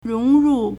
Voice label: "rong2 ru4", Chinese